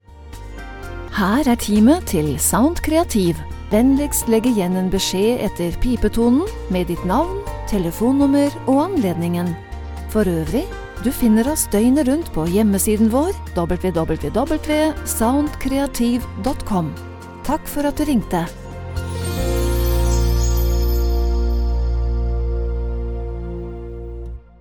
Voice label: norwegian ivr